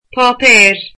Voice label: "paper", Irish